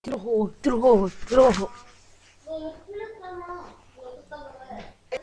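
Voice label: "hold", spoken word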